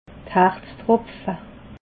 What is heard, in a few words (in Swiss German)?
"herztropfe", alsace